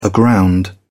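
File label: "aground", British English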